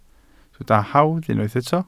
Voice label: "hawdd", Welsh